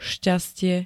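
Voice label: "šťastie", Slovak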